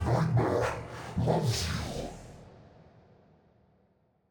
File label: Midfight Stereo; NPC; Malachai Combat